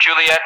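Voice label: "Juliett" English, speech; Alphabet; DCS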